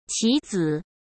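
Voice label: "棋子", Mandarin Chinese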